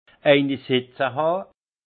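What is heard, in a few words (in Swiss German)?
"setze han", alsace Haut-Rhin; vin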